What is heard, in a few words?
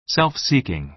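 "self-seeking", American English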